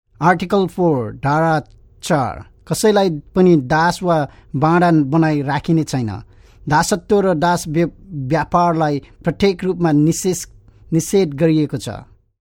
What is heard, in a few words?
Nepali